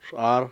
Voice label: "sequía", Cucapá

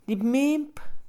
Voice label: siguiente, Mixe, Mujer; INALI

